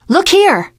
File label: look here, teen girl voice; source engine; left 4 dead 2; survivor